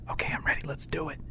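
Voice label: "let's do it", spoken; hostage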